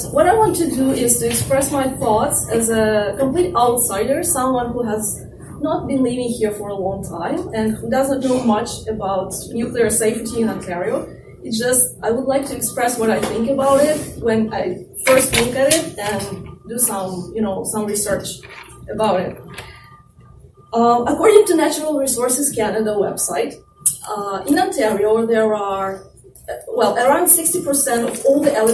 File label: Before Accent Reduction